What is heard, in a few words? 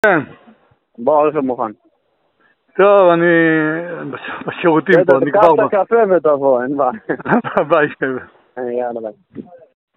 Call recording